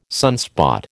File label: "sunspot", American